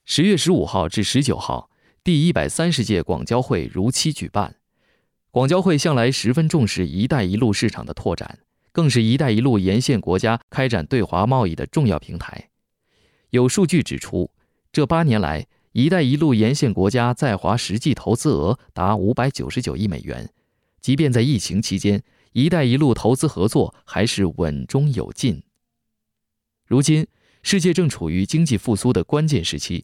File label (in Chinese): 新闻